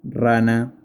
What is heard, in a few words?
Frog spanish